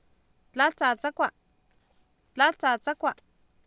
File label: "tlAatsaatsAkwa" Nahuatl, Oapan, female; Oapan minimal pairs 2000